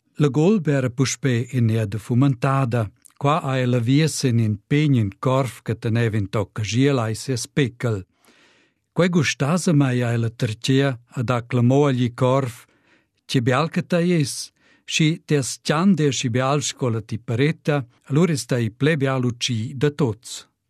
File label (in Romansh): LA VULP ERA FOMENTADA SUTSILVAN